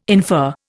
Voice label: "infer", Indian